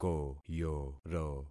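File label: "koyoro", concatenated syllables; Affixing experiment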